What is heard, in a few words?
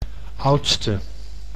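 "oudste", Dutch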